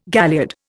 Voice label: "galliard", Indian

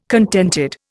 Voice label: "contented", Indian

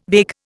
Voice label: "vague", Indian

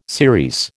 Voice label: "series", American

